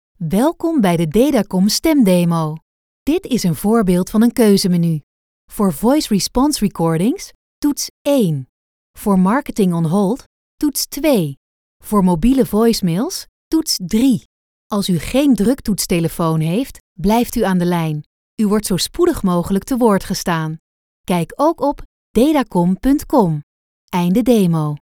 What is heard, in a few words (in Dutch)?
Voice over Nederlandse vrouw